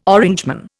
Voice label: "Orangeman", Indian

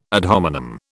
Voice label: "ad hominem", British

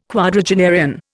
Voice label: "quadragenarian", Indian

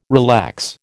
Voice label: "relax", American

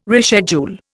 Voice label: "reschedule", Indian

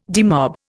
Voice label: "demob", Indian

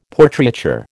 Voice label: "Portraiture", American

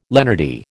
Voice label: "lenity", American

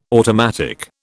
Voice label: "automatic", British

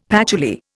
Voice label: "patchouli", Indian; Dictionary

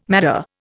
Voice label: "madder", Indian

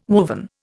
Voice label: "woven", Indian

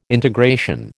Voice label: "integration", American

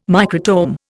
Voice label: "microtome", Indian

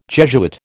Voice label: "Jesuit", American

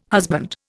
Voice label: "husband", Indian

